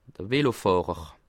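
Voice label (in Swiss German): "fahrer", Bas Rhin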